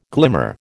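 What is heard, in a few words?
"glimmer", American English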